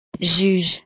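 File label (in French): "juge", French; profesiones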